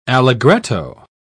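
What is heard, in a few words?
"allegretto", British English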